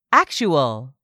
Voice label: "actual", English; 3000 words